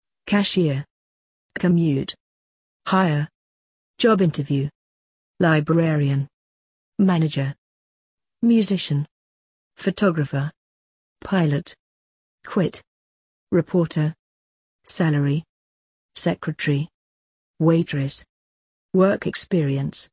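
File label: British; jobs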